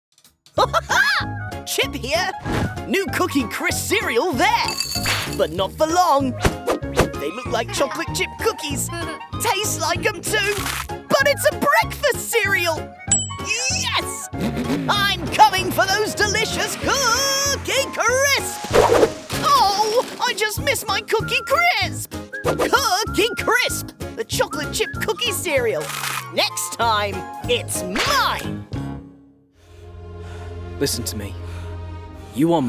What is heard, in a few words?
Commercial; VOICEFOX